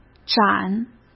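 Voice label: "盏", Mandarin Chinese; chinese flashcards; hsk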